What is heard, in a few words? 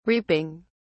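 "reaping", British English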